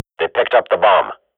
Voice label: radio bot bomb pick, swat; Global Offensive; player vo